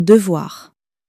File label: "devoir", french; flashcards